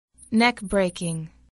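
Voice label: "neckbreaking", English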